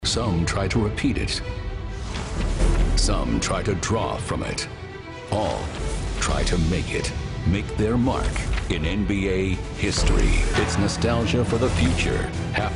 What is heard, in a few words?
promo sports, men